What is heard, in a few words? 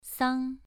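"sang" Mandarin Chinese, second tone, pronunciation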